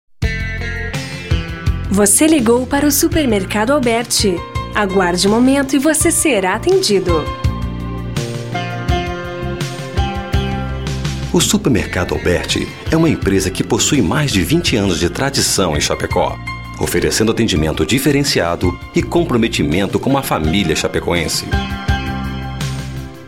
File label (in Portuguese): espera telefonica; espera telefonica sup alberti